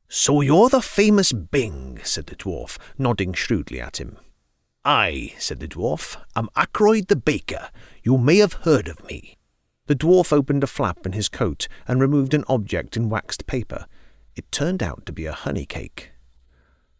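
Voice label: welsh, accents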